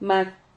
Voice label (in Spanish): "mad", Silabas